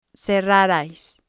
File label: "cerrarais", spanish